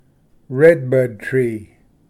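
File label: "redbud tree", English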